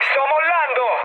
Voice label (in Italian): bailout, mood med, speech it; War Thunder